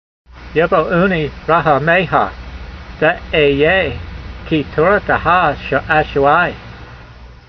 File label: voice, hebrew language; Psalm 119:77; yevo'oonee rahameyha ve'eyh'yeyh, kee-torateha sha'a'shu'ai